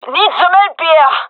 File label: request help, gendarmerie fem; vo